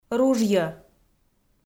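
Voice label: "ружйӧ", Komi, female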